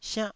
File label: "siannh4", taiwanese Syllables